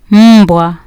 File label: "mbwa", Kiswahili